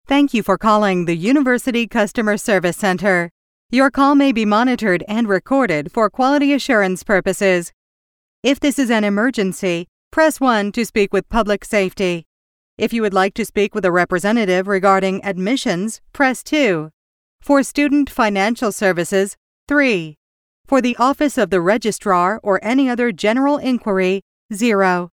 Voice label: us english ivr